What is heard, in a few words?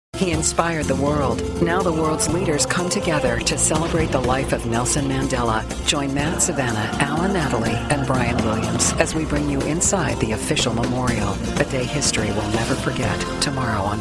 promo, women